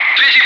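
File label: Russian loud; Wingman; DCS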